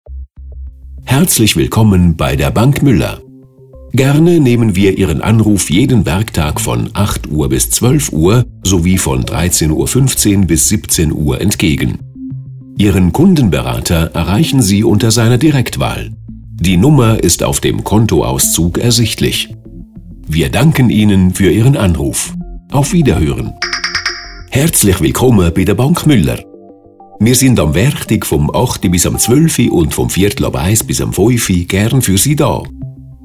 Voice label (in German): telefonansagen sprecher; Native Speaker für Telefonansagen